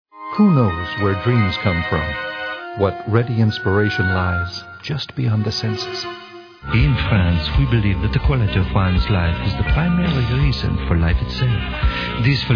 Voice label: male; Spotland Productions